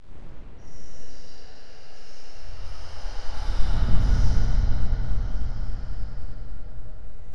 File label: whisper; Creepy Sounds